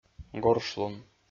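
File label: "горшлун", Komi-Zyrian, male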